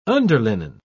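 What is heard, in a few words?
"underlinen", English, British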